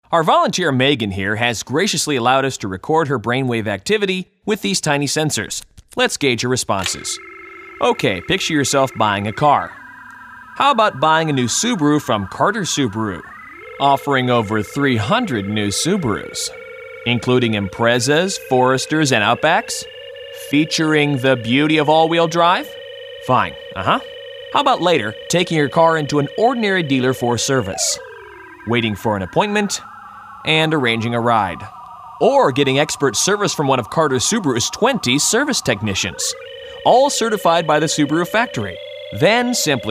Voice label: Radio; Suburu